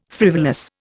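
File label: "frivolous", Indian English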